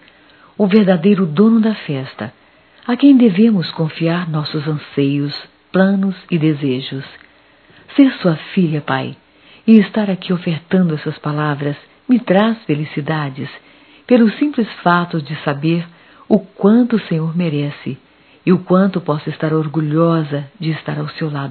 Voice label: Natal para pai - F; Natal e ano novo; fem; Telemensagens 2017

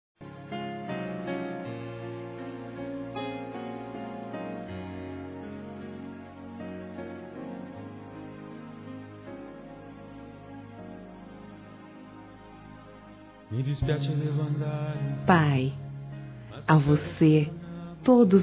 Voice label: Neutro, fem; Telemensagens 2017

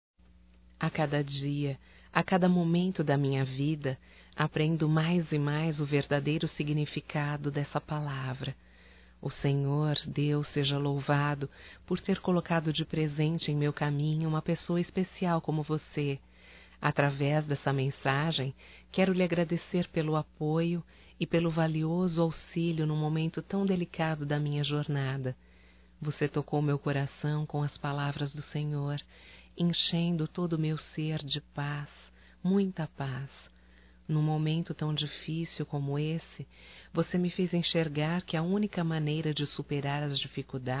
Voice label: EVAN AMIGO, Telemensagens, Evangelico, fem; Telemensagens 2017

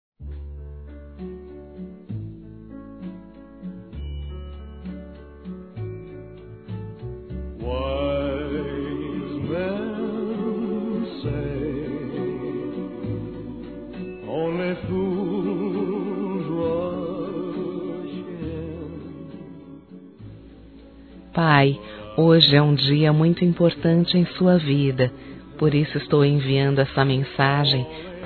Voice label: Aniversário Pai Distante Feminino; Aniversários; Aniv Familiar

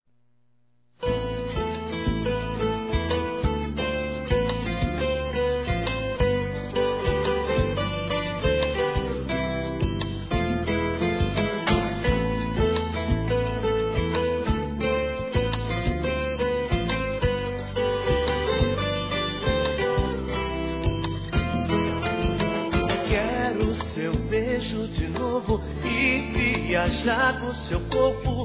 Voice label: Aniversário Sertanejo Esposa Feminino; ANIVERSARIO romantico

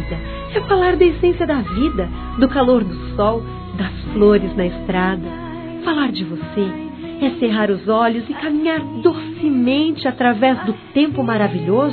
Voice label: Aniversário Neta Feminino

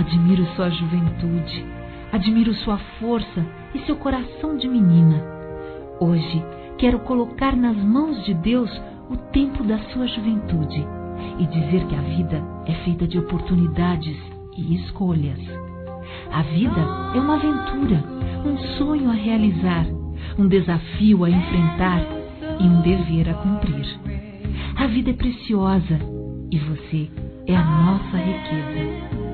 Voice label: Aniversário 15 Anos Neta Feminino; Aniversários; Telemensagens

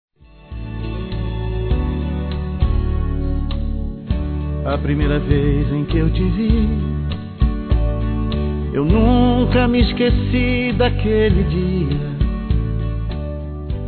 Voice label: VC ME FEZ FELIZ, Românticas, fem

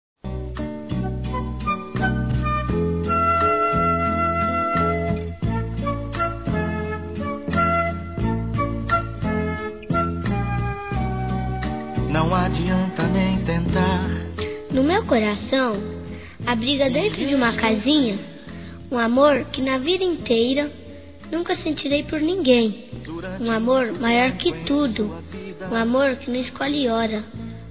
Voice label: MENSAGEM P MAMÃE M, Telemensagens; masc; Telemensagens 2017

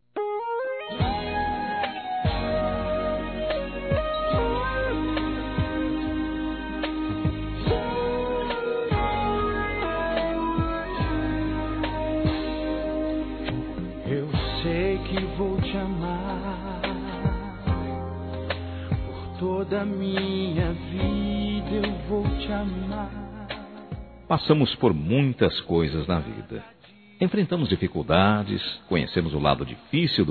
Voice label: Aniversário Esposa Masculino

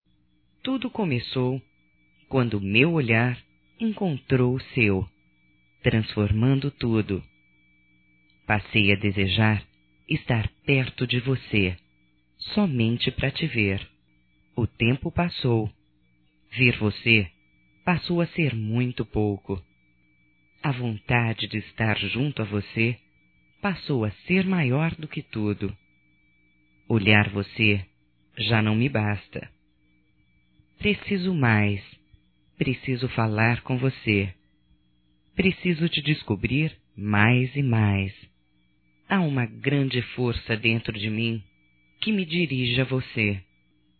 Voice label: ROMÂNTICA - F, Telemensagens; Românticas

